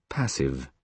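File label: "passive", British English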